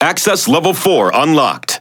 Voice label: Speech Access Level, EN; World Of Warships

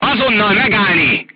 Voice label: voice message short stop, crew tanks hungarian

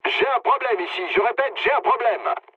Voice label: aircraft damaged mood low, speech fr; War Thunder

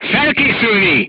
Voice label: voice message load, crew tanks hungarian

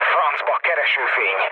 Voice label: searchlite, mood low, speech hun; War Thunder

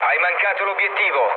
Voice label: bomb failure mood low, speech it; War Thunder

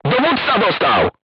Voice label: voice message commander wounded, polish

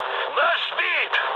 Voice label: aircraft destroyed, mood med, speech ru; War Thunder

